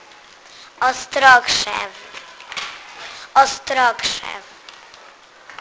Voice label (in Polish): holly - ostrokrzew, Polish